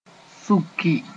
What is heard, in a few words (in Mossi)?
"sũki", Moore